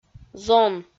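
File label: "зон", Komi-Zyrian, female; audio dict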